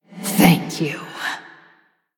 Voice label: Female Blood Elf Thanks; Valeera Deathknight